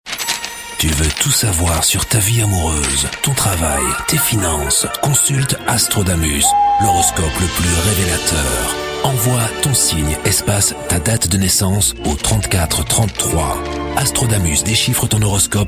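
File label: French, male, commercial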